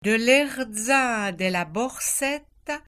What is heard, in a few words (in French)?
prononciation patois vaudois